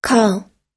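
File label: "call", US English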